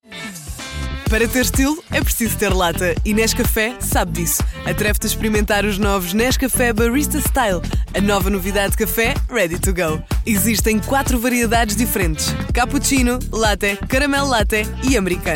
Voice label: SPOT